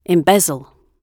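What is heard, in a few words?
"embezzle", English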